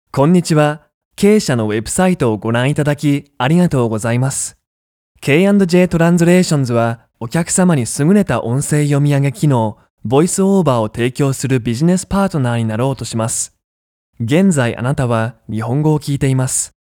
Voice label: japanese male